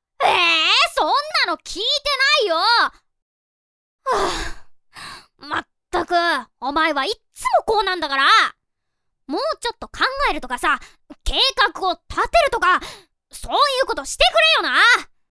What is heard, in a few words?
boy